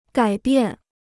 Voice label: "改变", Chinese

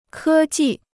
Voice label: "科技", Chinese

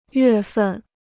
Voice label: "月份", Chinese

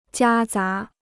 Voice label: "夹杂", Chinese

